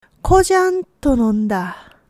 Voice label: "kojanto", woman; hogen

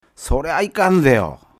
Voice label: man, soryaikan; hogen

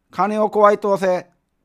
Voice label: man, kowasu; hogen

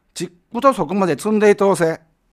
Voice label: man, tunndeiku; hogen

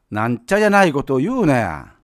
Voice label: man, nantyajya; hogen

